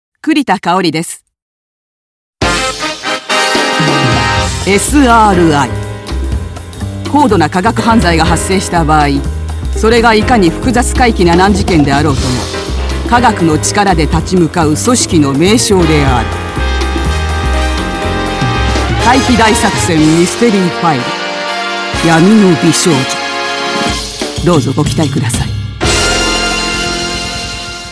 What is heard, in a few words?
narration